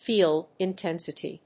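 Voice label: "field intensity", English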